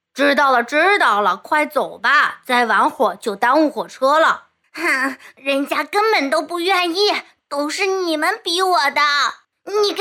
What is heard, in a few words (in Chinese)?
多性格音色男童演绎